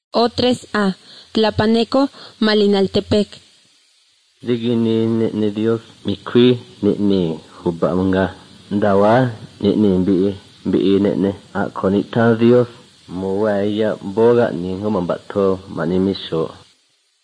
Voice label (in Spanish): spanish mexico